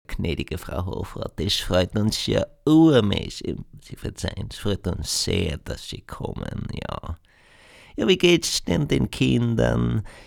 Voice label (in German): oesterreich